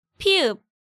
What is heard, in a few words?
"Pieup", Korean